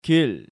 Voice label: "gil", Korean